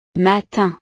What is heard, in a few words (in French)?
"matin", frances